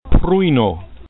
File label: "prujno", esperanto